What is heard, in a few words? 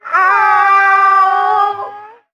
"oh" kids; ooh ahh